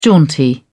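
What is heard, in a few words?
"jaunty", British English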